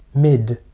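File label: "mid", English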